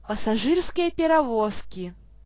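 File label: carriage description, Belarusian; vehicles; locomotive; cartoon; stamps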